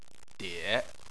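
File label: "die" third tone, Chinese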